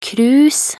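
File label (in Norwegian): "cruise", Norwegian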